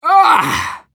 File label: Demoman negative vocalization, ru